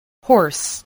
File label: "horse", spelling